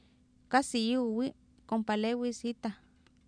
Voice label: kAsi IiowI kompaleewiis iitah, Oapan minimal pairs female; Oapan minimal pairs 1998